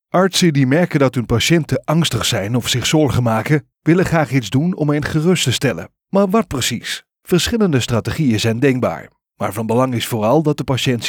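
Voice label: Natural speak Nurturing Medical presentation Native Dutch, male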